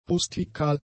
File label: "rustikal", German; adjectives